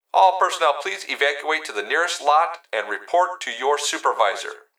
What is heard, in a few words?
Emergency Human Male Voice Plant Security Dispatch All Personnel Please Evacuate To The Ne; Nearest Lot